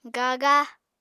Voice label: "gaga", Apatani